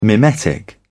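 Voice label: "mimetic", British English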